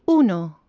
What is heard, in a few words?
"uno" Spanish